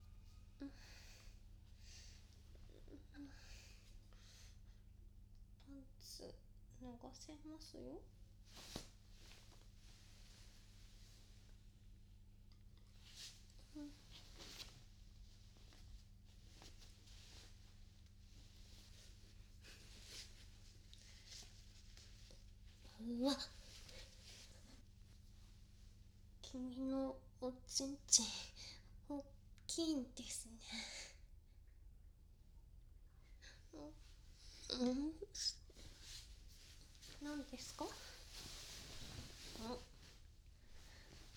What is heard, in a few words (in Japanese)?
ボイスドラマ バイノーラル, ASMR